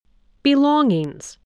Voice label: "belongings", English